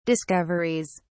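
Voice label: "discoveries", English, British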